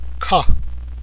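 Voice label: "kha", Tibetan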